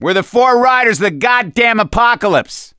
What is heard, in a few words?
hurrah, survivor voice; gambler